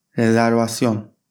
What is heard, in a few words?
"rezervasyon", Turkish